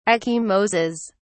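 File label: "ecchymoses", British English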